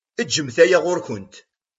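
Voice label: "Eǧǧet aya ɣur-wen.", Kabyle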